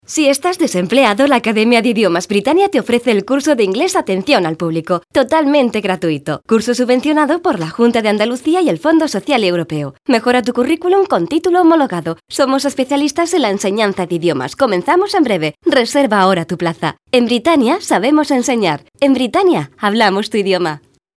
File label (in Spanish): locutora